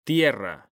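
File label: "tierra", Spanish, male; mekanta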